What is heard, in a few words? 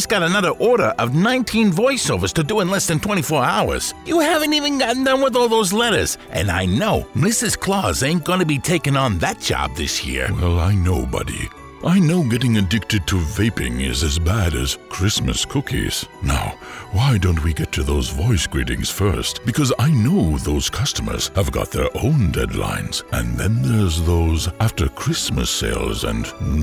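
Elf santa English USA Male Character, Elf And Santa Both Voices; Holiday Characters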